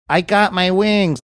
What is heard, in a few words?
saying i got my wings funny